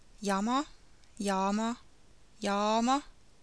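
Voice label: estonian jama; estisk [ˈjɑmɑ ˈjɑ:mɑ ˈjɑ::mɑ]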